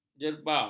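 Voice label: "jerba", Malay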